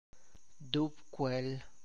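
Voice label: Tlacochahuaya Zapotec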